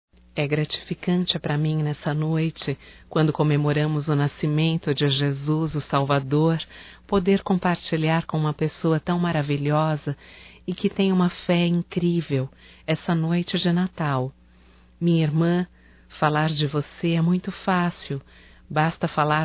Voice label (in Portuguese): Irmão Feminino; Natal e ano novo; Telemensagens 2017